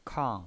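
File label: "kang", fourth tone, pinyin voice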